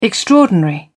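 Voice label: "extraordinary", British English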